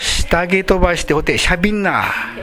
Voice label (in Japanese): "sitage", minami yamagata